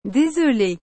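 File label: "Desole", French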